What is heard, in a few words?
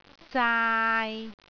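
"zaai3", Cantonese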